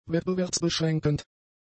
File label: "wettbewerbsbeschränkend", German; adjectives